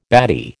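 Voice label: "baddy", American English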